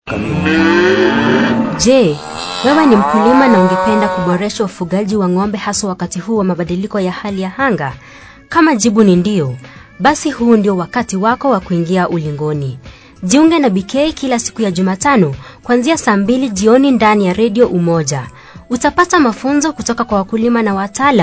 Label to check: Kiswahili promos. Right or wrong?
Right